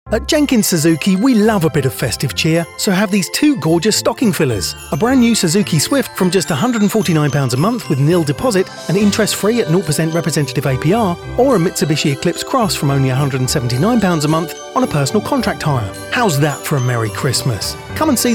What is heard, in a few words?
english british automotive